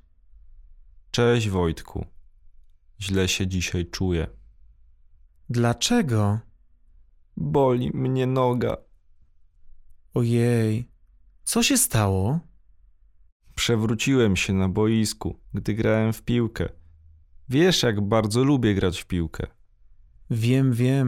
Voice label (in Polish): dialog